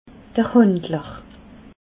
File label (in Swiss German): "handler", alsace